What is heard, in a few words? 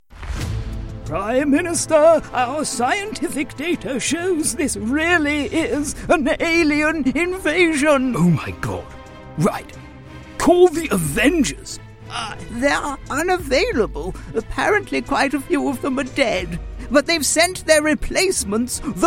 Animation